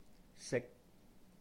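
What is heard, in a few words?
"sīk", Cantonese; know how; 識; sik1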